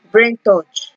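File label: "braintouch", Nigerian Pidgin, female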